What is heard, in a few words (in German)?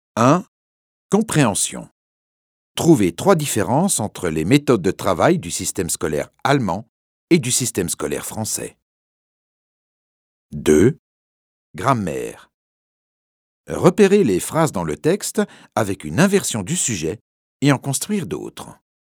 Französisch Mittel Übung; Double diplôme